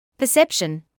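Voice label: "perception", British English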